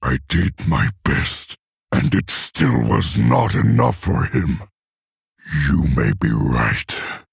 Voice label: Male Orc Victory; Thrall

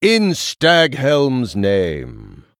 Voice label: Male Night Elf

